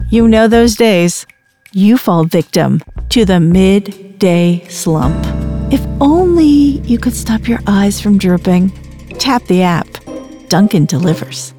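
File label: Attitude, Professional Female Voice Actor; Dunkin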